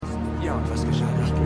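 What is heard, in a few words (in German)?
Polizistin Männliche Archivstimme, Stimmproben; LO SVU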